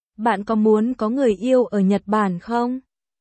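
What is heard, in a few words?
Vietnamese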